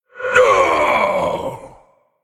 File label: Male Human Death